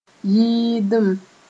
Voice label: "yɩɩdem", Mooré

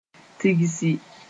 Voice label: "tigsi", Moore; to gather

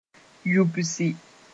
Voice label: "yubsi", moore

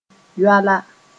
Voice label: "yoala", Mooré

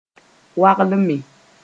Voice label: "waglme", moore; crunch

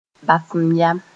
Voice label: "bas-m-yam", moore; assurance

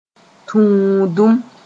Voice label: "tũudum", moore

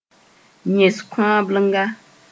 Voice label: "yẽs-kõablenga", moore

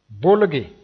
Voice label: "bolge", moore

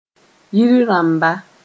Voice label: "yir rãmba", Mooré

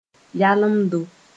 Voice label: "yalemdo", Moore

